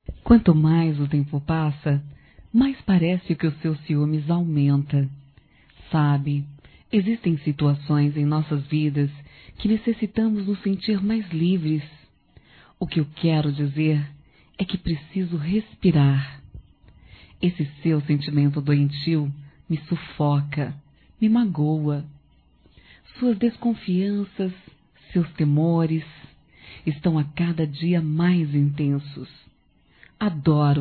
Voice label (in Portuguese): Ciúmes Feminino; Telemensagens 2017